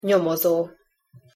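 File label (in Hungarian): "nyomozo", Hungarian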